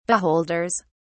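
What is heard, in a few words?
"beholders", British English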